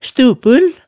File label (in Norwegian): "stupul", hemsedalsmaalet